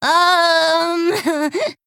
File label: Female Kobold Emote Thinking